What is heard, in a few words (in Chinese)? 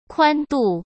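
"宽度", Mandarin; chinese-flashcards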